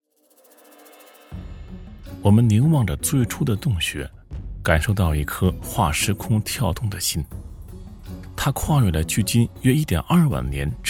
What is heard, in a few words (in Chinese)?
男 纪录片 俏皮; 甑皮岩